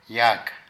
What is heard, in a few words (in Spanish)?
Oreja, Zapoteco de Petapa; Cuerpo; Vocabulary